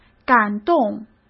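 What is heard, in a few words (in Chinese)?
"感动" Mandarin Chinese; chinese-flashcards; hsk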